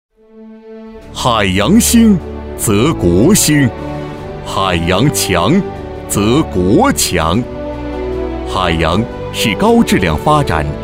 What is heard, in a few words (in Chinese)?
男 专题